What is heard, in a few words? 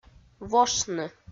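"вошны", Komi, female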